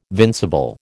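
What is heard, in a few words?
"vincible", American English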